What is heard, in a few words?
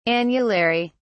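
"annulary", British English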